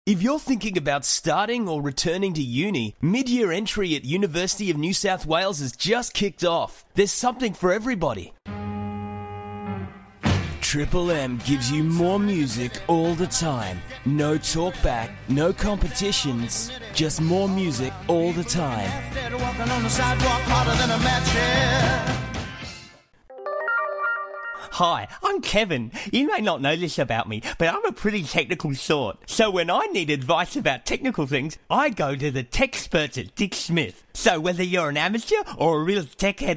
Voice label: AU English Showreel

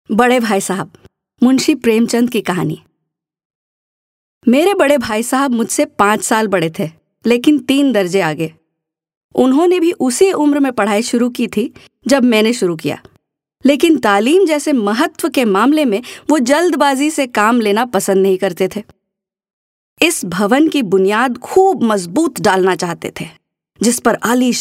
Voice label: Hindi Voice, Female; India

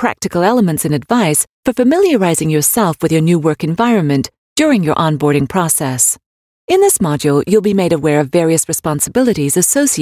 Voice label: UK English Smart Start eLearning

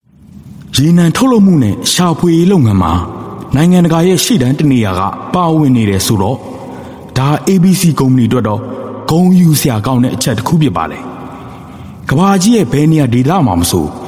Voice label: male Burmese Marketing

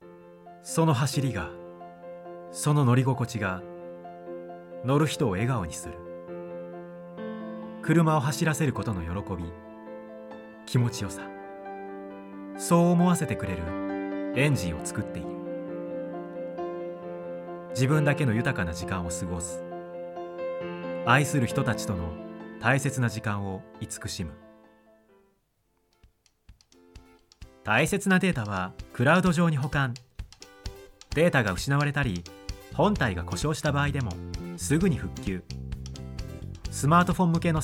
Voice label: Japanese Male Voice Sample

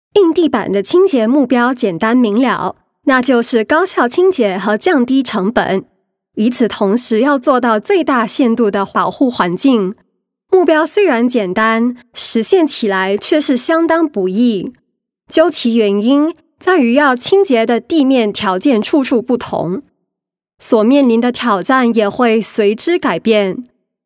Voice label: Mandarin Corporate Clean VO, China Female

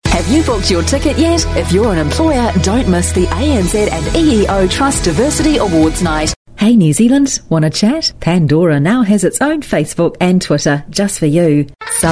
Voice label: AU English

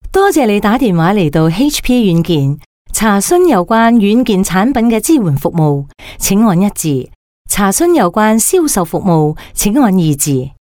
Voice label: Cantonese voice over F

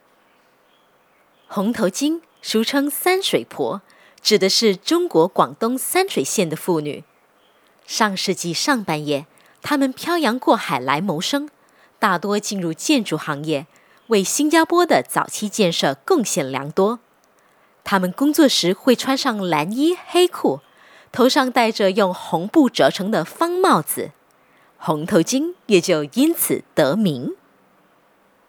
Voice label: Cantonese Chinese Informative, Female

